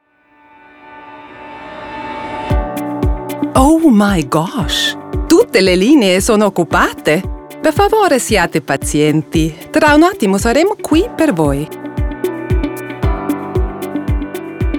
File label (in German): Telefonwarteschleife ITA